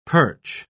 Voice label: "perch", pronounce